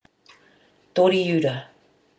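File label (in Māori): "toriura", Māori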